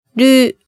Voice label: "ru", hiragana